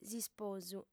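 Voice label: "s'isposu", female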